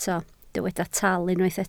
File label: "tal", Welsh